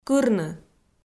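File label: "кырны", Komi-Zyrian, female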